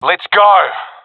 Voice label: request move, professional; vo; Counter Strike Model Pack; CS:GO

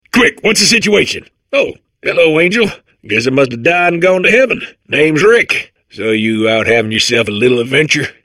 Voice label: babble; Portal 2

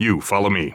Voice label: radio follow me; vo; Counter Strike Model Pack; CS:GO; phoenix coop

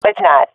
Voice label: disagree, swat fem; vo; agents; Source Model Packs; Counter Strike Model Pack; CS2

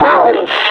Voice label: "barrells", suppressor; Half Life Alyx; combine

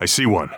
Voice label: radio enemy spotted, heavy; vo; Counter Strike Model Pack; CS:GO; phoenix coop

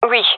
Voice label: affirmation, gendermerie fem; vo

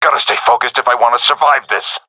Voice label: scared, seal diver; agents

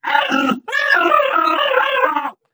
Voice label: ballbot crushed scream; player; Portal 2

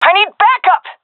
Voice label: request backup, seal fem; vo; Counter Strike Model Pack; CS:GO

